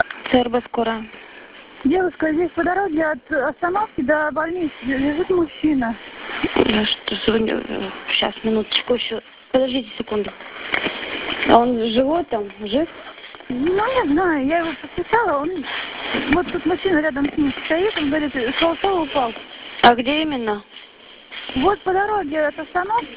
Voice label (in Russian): Звонок в ЦРБ